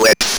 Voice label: ROB VOX